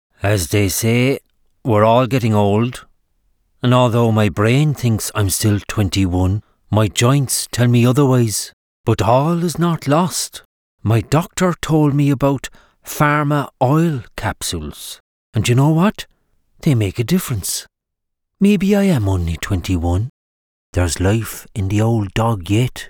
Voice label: Pharma Oil capsules commercial